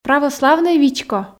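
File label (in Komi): православнӧй вичко, Komi, female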